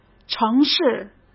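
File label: "城市" Mandarin Chinese; hsk; chinese-flashcards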